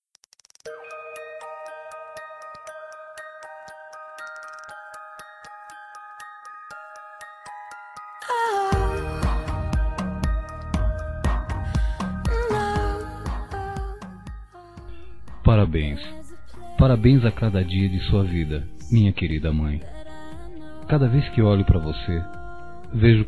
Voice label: Dia das Mães Geral M, Telemensagens; Masc; Telemensagens 2017

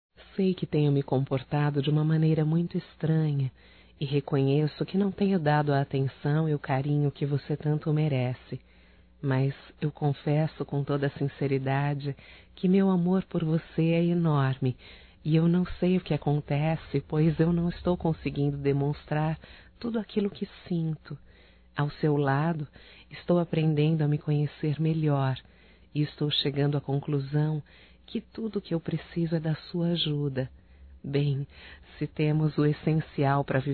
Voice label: ROMANTICA(F), Românticas, fem

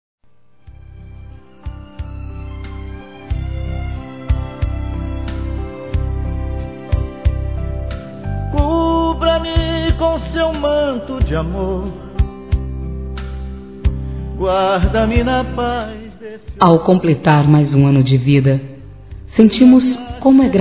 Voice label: Neutro Católico Feminino

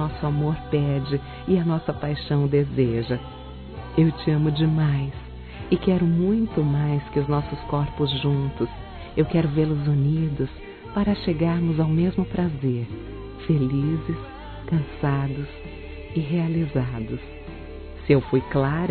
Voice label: Romantica Sedução Desejo Tenho Você Feminino, Telemensagens

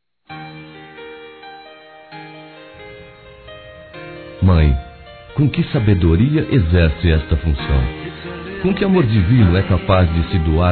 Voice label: Mãe Masculino; Aniversários; Aniversários diversos

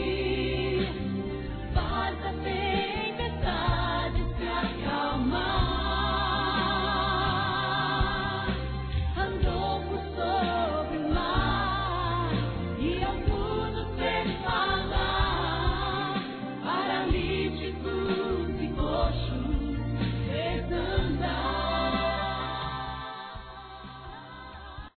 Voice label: NATAL E ANO NOVO PARA SOBRINHO F; Telemensagens; fem; Misto

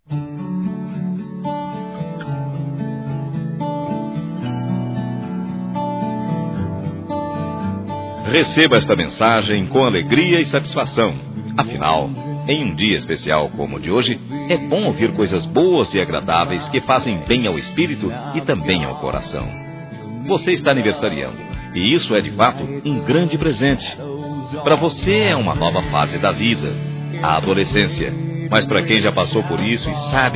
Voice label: ADOLESCENTE M, Telemensagens Aniversários

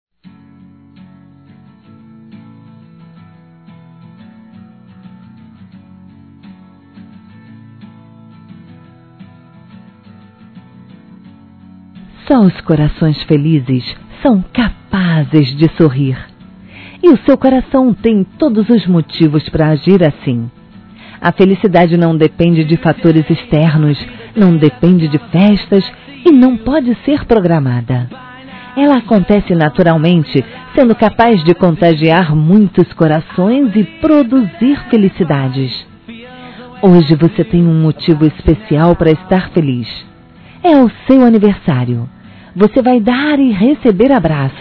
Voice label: Neutro Feminino; Aniversários; Aniversários diversos

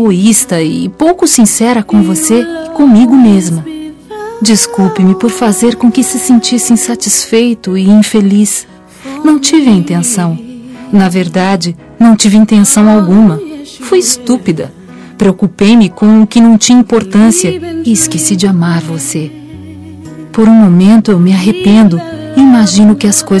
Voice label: ROM GOSTARIA DE DIZER ADEUS FEM

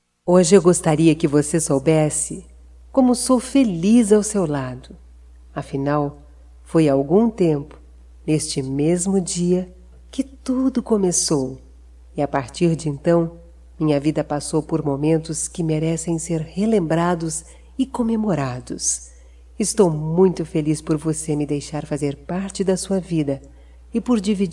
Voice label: aniversario casamento ou namoro f, Telemensagens

